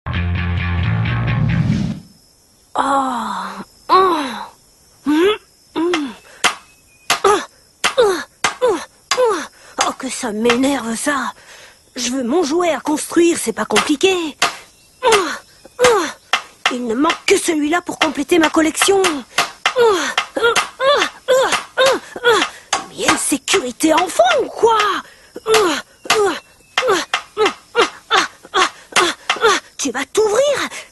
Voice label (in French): Singe casseur, voix parlees petits garcons